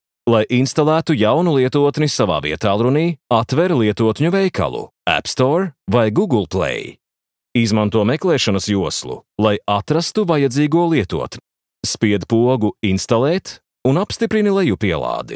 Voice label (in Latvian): Infografika LV